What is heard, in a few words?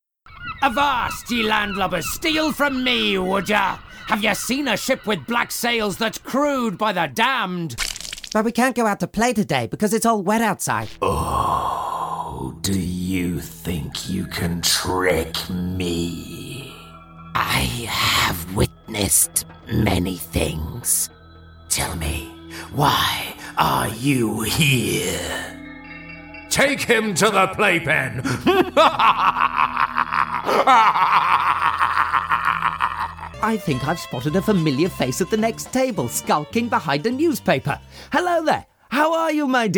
english british cartoon animation